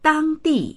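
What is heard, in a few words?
"dang1di4", Mandarin Chinese; 当地; dāngdì; 当地、現地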